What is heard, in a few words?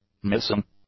"missing", English; adjectives